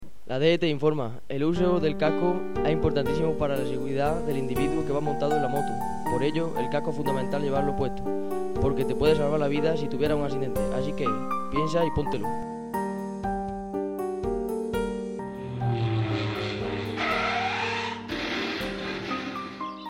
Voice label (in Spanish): anuncio casco; Uso Del Casco